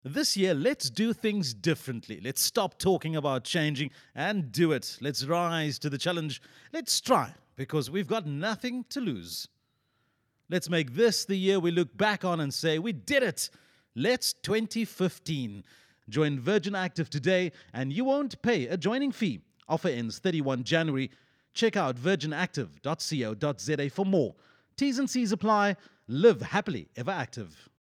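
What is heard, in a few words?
english South African male commercial; voice demos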